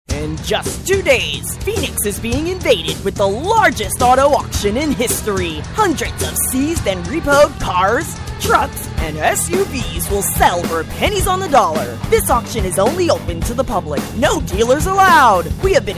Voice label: English American promos

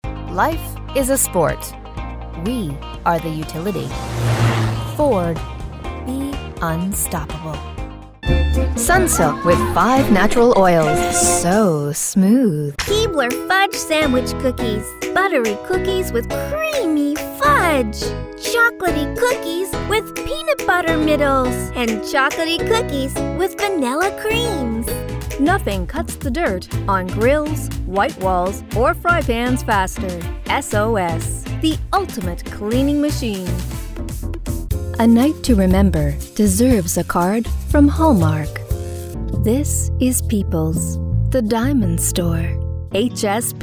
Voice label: english canadian commercial

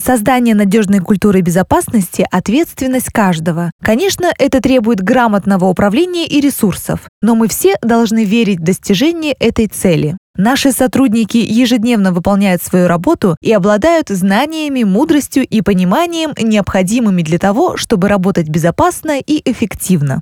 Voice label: russian political ads

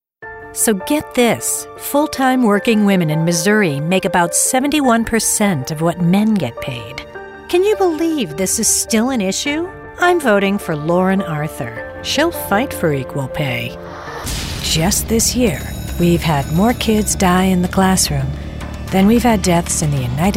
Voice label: english american political ads

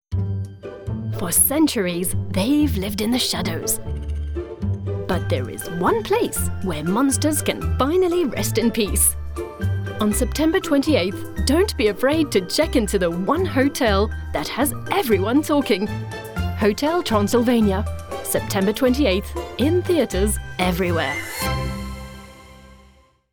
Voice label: english british movie trailers

